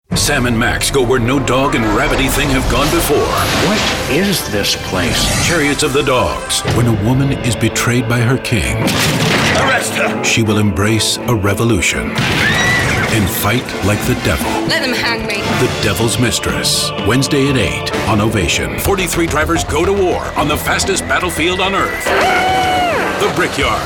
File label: TV Promo